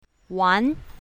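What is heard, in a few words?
"wan2", Chinese pinyin, south, female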